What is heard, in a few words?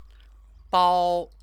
"bao", Chinese; 包; wrap; verb